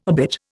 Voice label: "obit", Indian

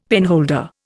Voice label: "penholder", Indian; Dictionary

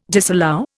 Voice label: "disallow", Indian

